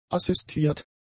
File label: "assistiert", German; adjectives